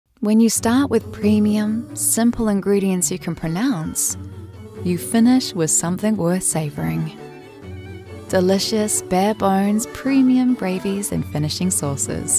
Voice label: english new zealand narration